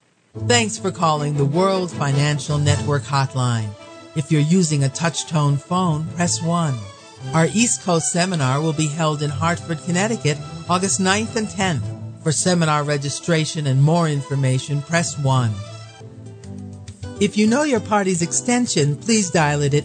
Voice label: female; TELEPHONE VOICE OVER TALENT